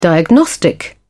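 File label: "diagnostic", British English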